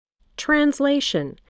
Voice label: "translation", voice